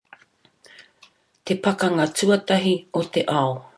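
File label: "te pakanga tuatahi o te ao", Maori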